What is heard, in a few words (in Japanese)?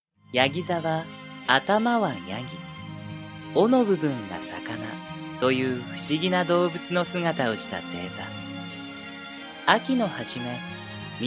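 日本語ナレーター 男性; GAIKEI Models & Narrators; 文化放送の教室で学ぶ傍ら、芝居・声楽等の専門家にも師事、現在成長期！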